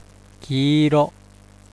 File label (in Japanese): "ki iro" Japanese; yellow; きいろ; 黄色; Let's Speak Foreign Language